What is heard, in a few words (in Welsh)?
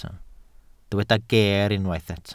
"geer", Welsh